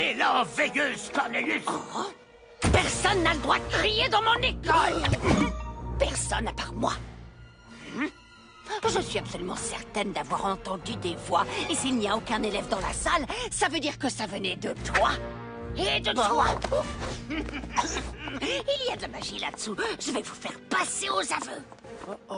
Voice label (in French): dessins animes; sadie sparks